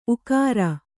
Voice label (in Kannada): "ಊಕಾರ", Kannada